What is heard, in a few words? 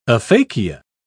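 "aphakia", British English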